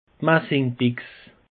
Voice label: "massingbichs", alsace; peche

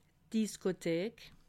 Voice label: "diskothek", alsace

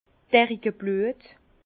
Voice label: "derikebluet", Bas Rhin; vin

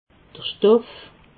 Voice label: "stoff", alsace

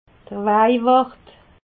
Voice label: "wajwart", alsace

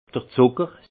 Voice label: "zucker", alsace; le sucre; vin

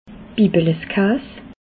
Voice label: "bibeles kaas", alsace

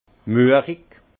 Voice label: "muerig", Alsace; vin; bourbeux

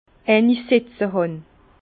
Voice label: "setzehan", Bas Rhin; vin

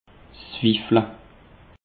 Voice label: "wifele", alsace; peche

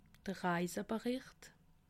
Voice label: "reisebericht", alsace

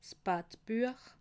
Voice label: "battbuech", alsace

